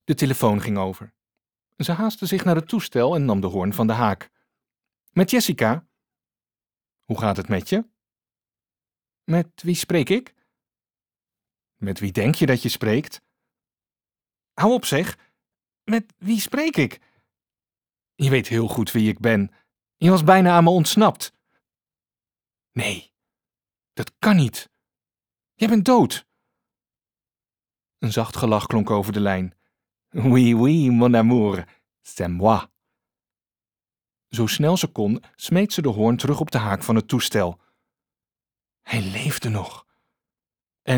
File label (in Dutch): Luisterboek dialoog